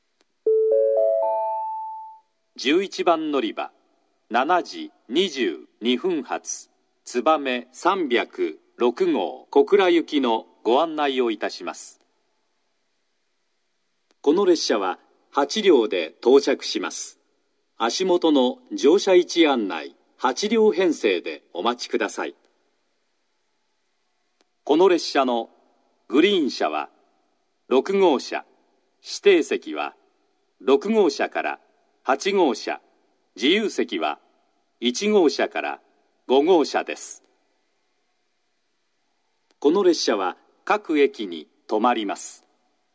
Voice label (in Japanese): 11番のりば案内放送; 新玉名; つばめ306号 小倉行き; 15分前、9分前の2回流れます。; スピーカーは安全柵内蔵型です。